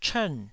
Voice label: "chern4", taiwanese syllable